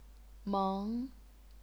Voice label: "meng2", chinese pinyin